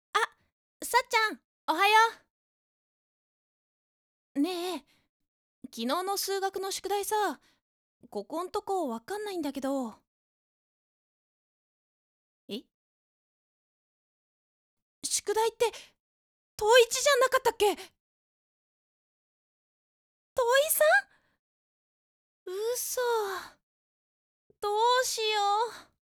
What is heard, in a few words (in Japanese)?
女性